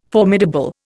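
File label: "formidable", Indian English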